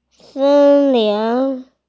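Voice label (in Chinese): "sen1lin2", Mandarin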